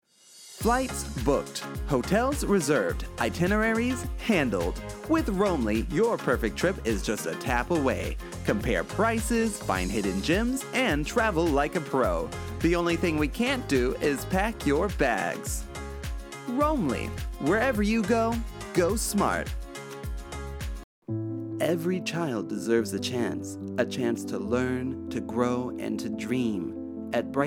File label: Narration; Narration Demo